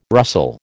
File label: "rustle", American